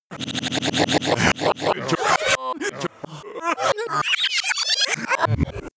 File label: glitch talk fx